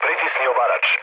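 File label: Serbian attack position mood low, speech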